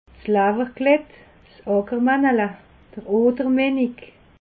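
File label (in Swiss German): "lawwerklett", Alsatian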